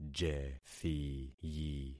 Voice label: "jethiyi", concat syllables; Affixing experiment